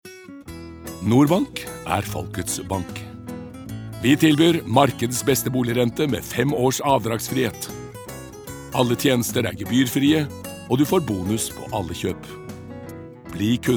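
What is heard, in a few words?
Norwegian commercial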